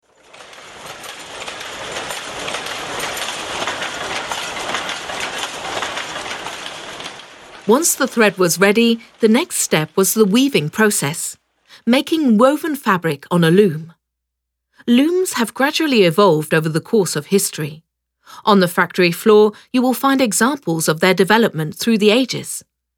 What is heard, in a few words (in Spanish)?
audioguia; fabrica textil engl